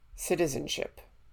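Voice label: "citizenship", American English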